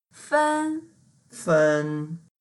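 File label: "fen1", Mandarin Chinese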